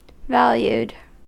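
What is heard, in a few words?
"valued", American English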